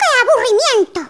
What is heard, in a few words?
"Boring", Spanish